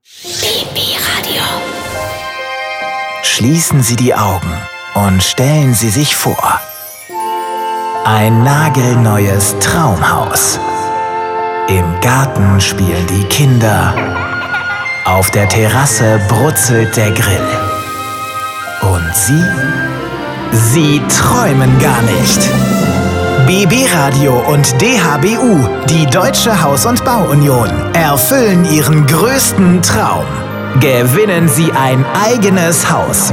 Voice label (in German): Promo; Traumhaus; BB RADIO